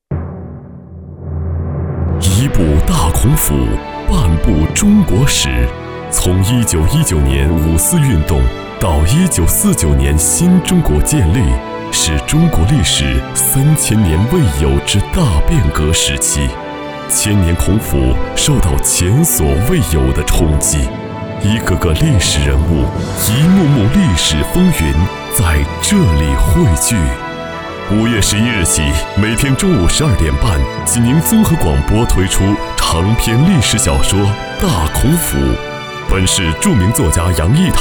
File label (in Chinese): 恢弘台宣; 大孔府